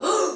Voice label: Attack Soldier Female, Battle Barks; Dialog; Sinecure